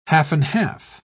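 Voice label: "half-and-half", British English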